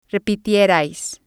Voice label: "repitierais", Spanish

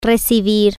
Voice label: "receive" Spanish

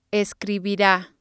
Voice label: "escribira", Spanish

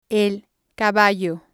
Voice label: horse, spanish

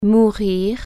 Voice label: "die", French

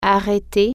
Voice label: "stop", French

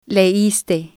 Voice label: "leiste", spanish

